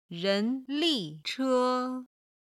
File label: "ren2li4che1", Chinese